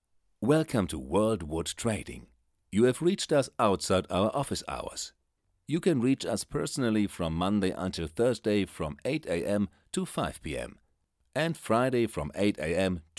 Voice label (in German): Bandansage Englisch